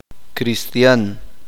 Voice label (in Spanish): uspanteko persona ser humano